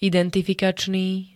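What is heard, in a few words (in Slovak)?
"identifikačný", Slovak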